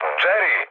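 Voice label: Serbian enemy detected mood med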